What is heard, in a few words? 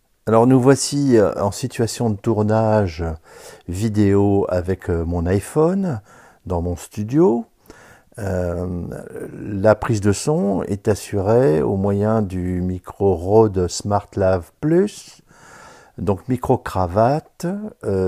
test smartlav iphone